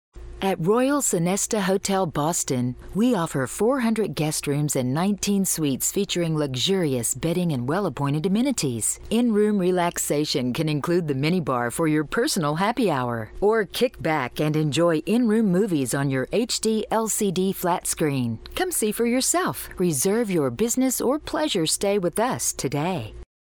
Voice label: Phone Hold IVR Female, Versatile, Smooth, Warm; Commercial Voiceover, TV and Radio, Narration

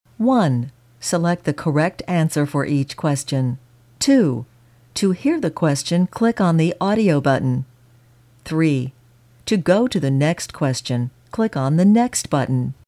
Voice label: eLearning Female

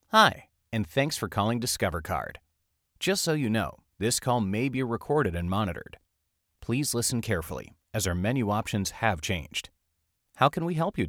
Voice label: Phone Hold Male Millennial; Narration, Phone Hold/IVR

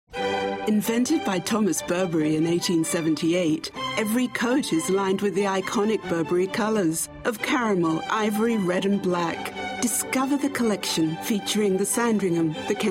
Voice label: Commercial British Female

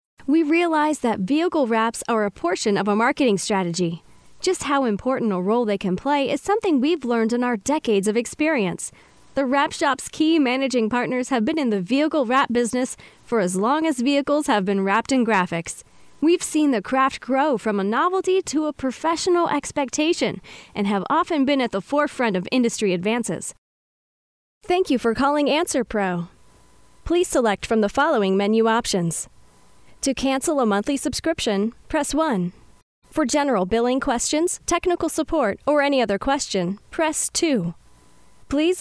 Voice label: Phone Hold IVR Female; Narration, Phone Hold/IVR

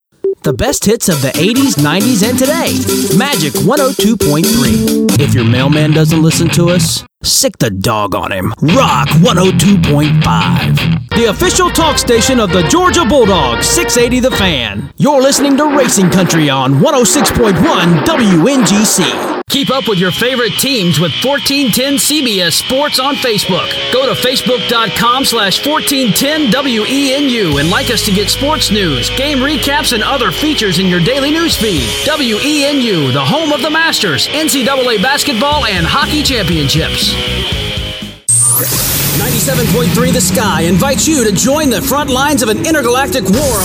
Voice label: Imaging Male, Radio Imaging; Commercial Voiceover, TV and Radio, Promo

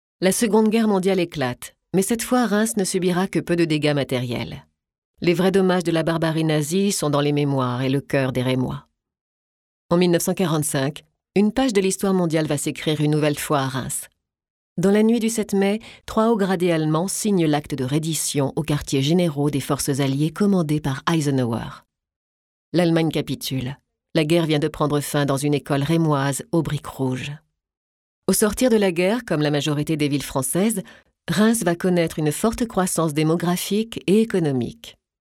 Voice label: Foreign Voices French Female Narration; commercial voiceover

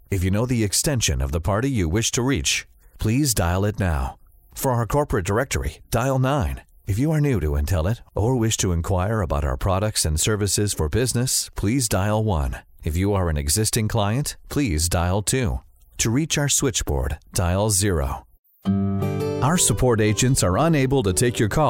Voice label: Phone Hold IVR Male, Narration, Phone Hold/IVR

